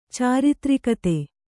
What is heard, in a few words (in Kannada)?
"ಚಾರಿತ್ರಿಕತೆ", Kannada